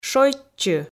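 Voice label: "шойччы", Komi, female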